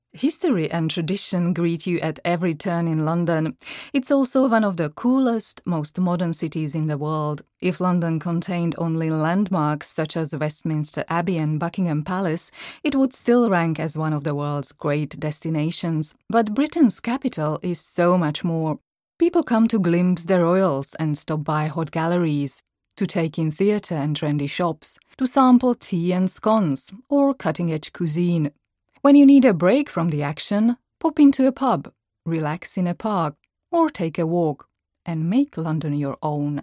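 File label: Slovak Accented English; GoLocalise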